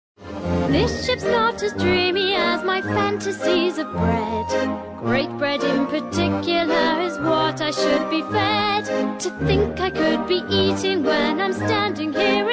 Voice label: English UK F mixed singing